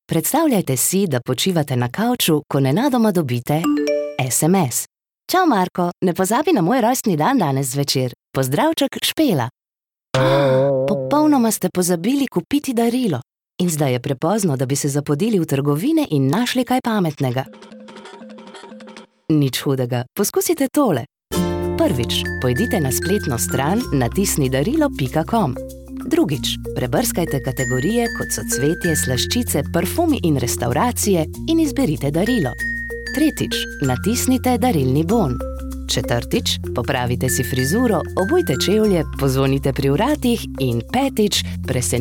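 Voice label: slovenian female narration; voice demos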